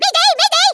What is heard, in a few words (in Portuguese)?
"orders", Portuguese; Worms Armageddon